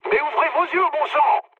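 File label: French player friendly fire mood med; War Thunder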